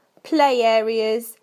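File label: "play areas", British English; PictureDictionary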